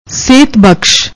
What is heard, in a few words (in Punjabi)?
"sehat bakhash", Punjabi